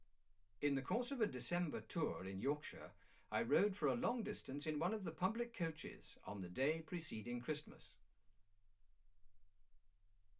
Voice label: male speech english